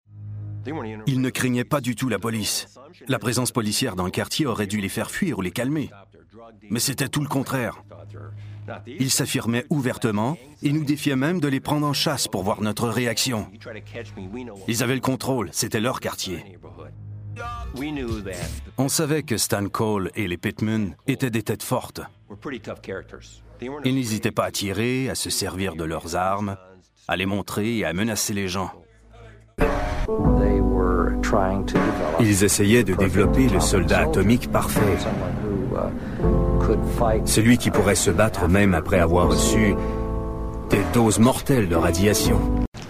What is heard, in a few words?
Voice Over